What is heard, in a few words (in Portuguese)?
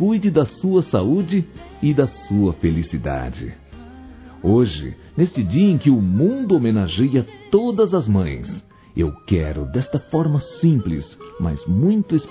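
MÃE VOCÊ É TUDO, Masculino; Dia das Mães; Telemensagens 2017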